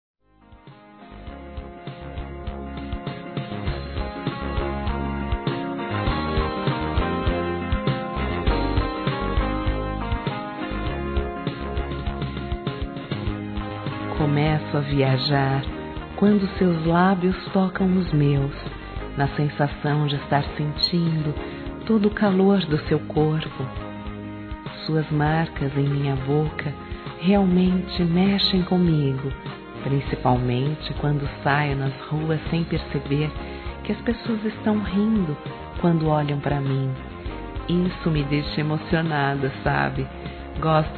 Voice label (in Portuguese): TEU BEIJO F, Sensuais, fem; Telemensagens